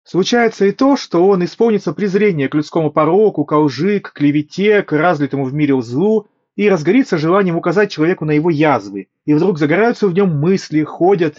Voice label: Mic; Запись с микрофона гарнитуры; Huawei FreeArc